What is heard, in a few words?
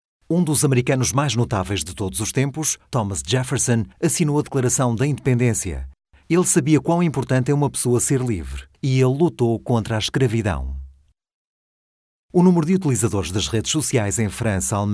portuguese male; dictor-sounds